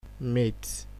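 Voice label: "mate", Nigerian Pidgin, male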